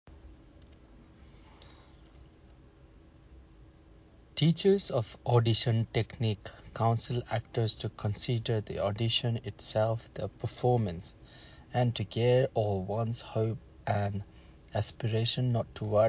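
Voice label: General, Nepali; GoLocalise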